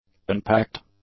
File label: "unpacked", english; adjectives